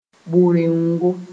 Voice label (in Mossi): "bʋ-reongo", moore; hut made for goats